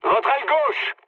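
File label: left wing, mood medium, French; War Thunder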